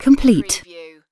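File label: "complete", British female; lynne publishing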